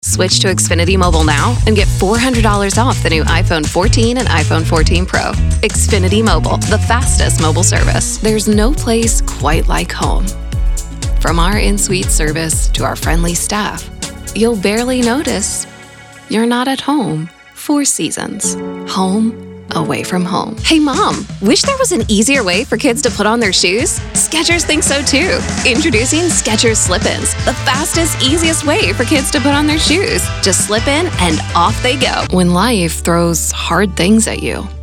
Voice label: femalevoices

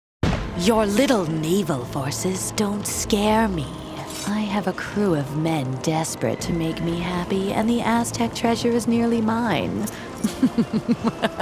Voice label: Character, female voices

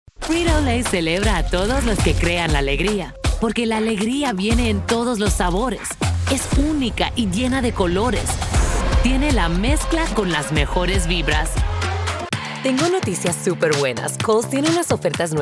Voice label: Voiceover - Spanish Commercial, femalevoices

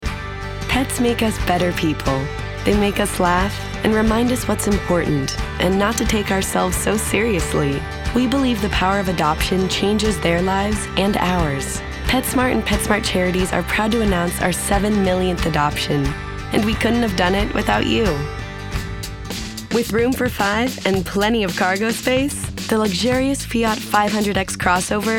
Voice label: femalevoices

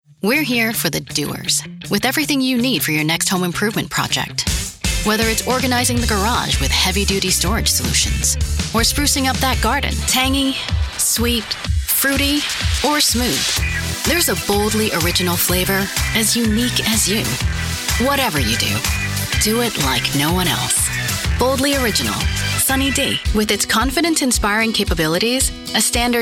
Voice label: Commercial, female voices

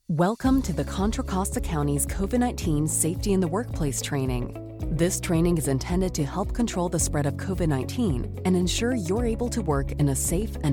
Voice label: eLearning, female voices

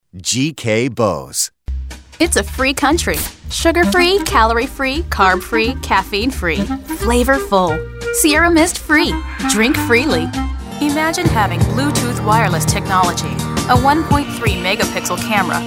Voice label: Commercial, femalevoices

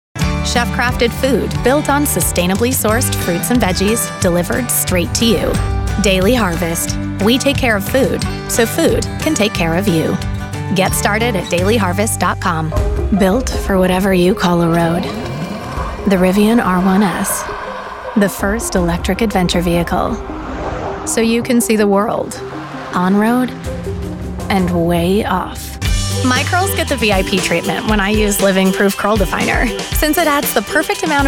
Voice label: Commercial, femalevoices

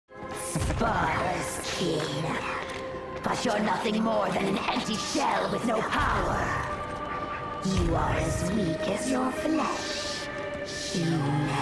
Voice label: Animation, female voices

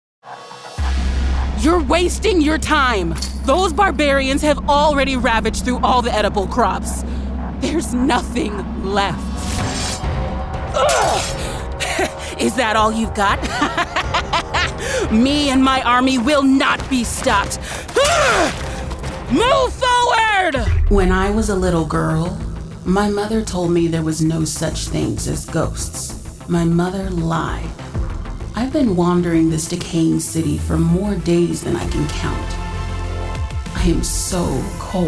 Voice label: Interactive (Gaming), femalevoices; Interactive (Gaming) Demo

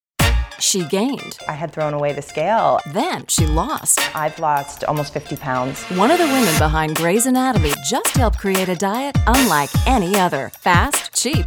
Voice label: Affiliate, female voices

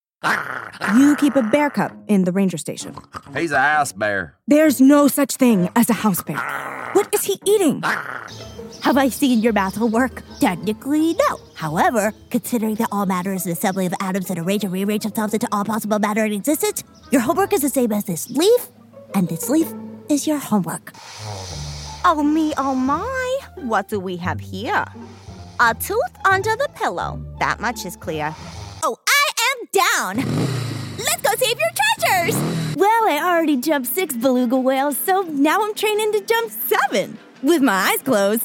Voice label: Animation, female voices

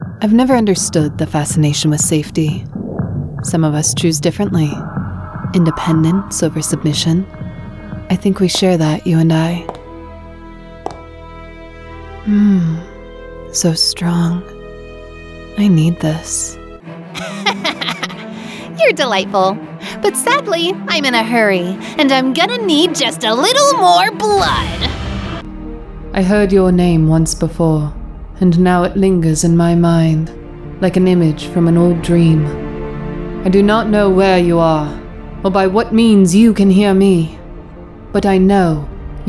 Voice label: Full Game, female voices

